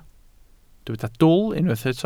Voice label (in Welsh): "dwl", Welsh